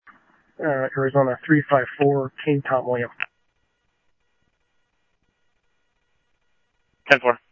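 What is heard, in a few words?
police department, scanner audio trunk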